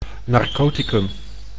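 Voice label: "narcoticum", Dutch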